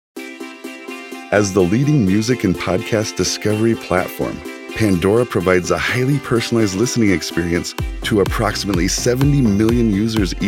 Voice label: CORPORATE